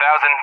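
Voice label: "1000s", English, USA; Numbers; DCS; Allied Flight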